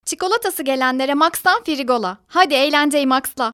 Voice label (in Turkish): reklam